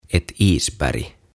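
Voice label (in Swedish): "ett isberg", Swedish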